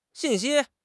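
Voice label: "xin4 xi1", Mandarin Chinese; HSK; HSK4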